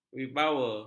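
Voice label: "wibawa", Malay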